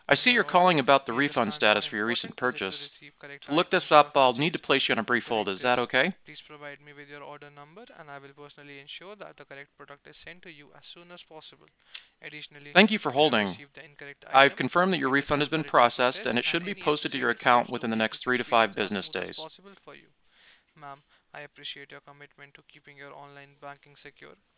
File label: Background speech original conditions